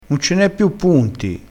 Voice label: "che dici", crespinese

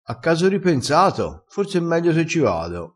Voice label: "a caso ripensato", Crespinese

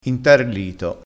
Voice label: "intarlito", crespinese

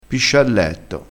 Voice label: "piscialletto", crespinese

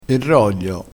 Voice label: "roglio", crespinese

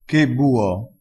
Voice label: "che buo", crespinese

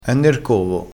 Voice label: "covo", crespinese

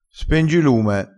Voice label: "spengilume", crespinese

